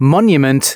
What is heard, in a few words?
announcements male